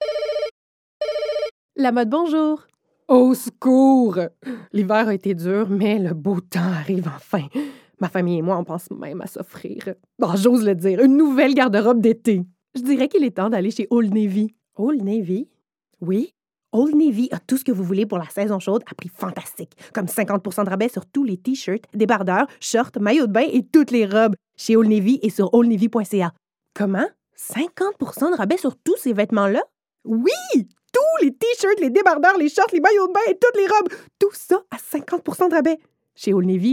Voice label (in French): francais qubecois naturel annonceur personnage humour amical avec so; old navy